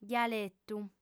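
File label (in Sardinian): "dialetu", female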